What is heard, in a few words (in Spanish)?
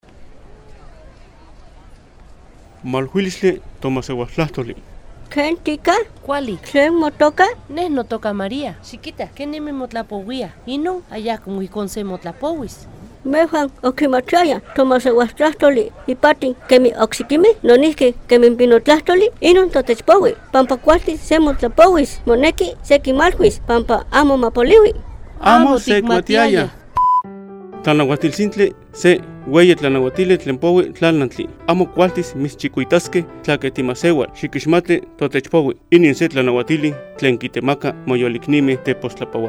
Lengua Nahuatl de la Sierra de Zongolica